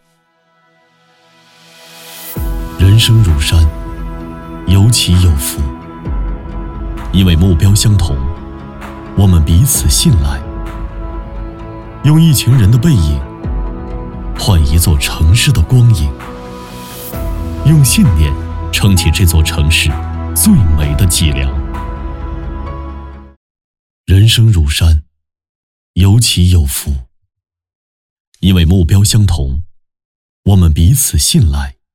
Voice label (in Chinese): 男 宣传片 宣传温情励志 城市脊梁